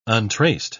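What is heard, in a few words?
"untraced", British English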